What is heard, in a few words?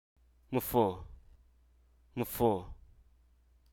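Hruso Aka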